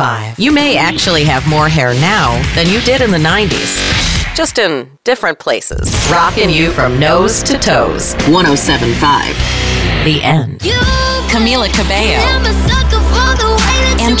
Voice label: Commercial Retail; Imaging Demo